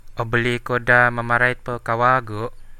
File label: say again, central dusun